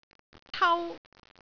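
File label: "tau1", Cantonese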